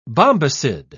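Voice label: "bombycid", British English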